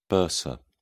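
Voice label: "bursa", British English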